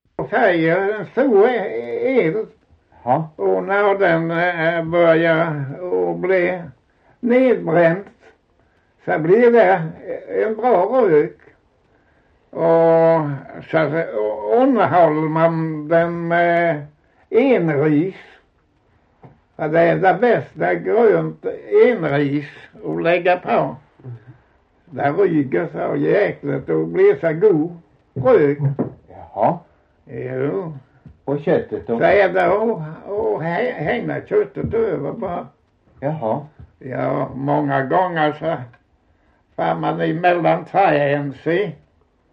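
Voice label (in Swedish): Osby Skåne, dialekter; Folkminnen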